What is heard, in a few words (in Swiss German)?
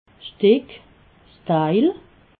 "stick", alsace